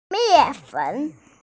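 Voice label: "mian4 fen3", Mandarin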